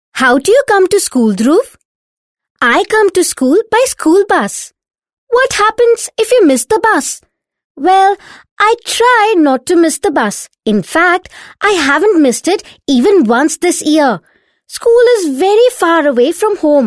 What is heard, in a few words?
Eng sample, female voice